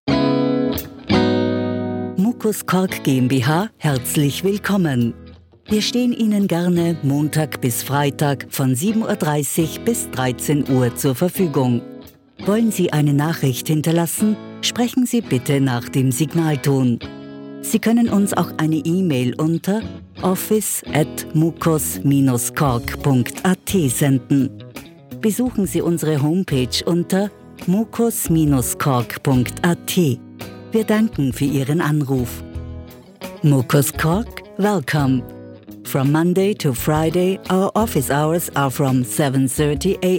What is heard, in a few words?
austrian female telephone